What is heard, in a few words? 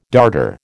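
"darter", American English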